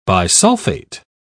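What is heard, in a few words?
"bisulfate", British English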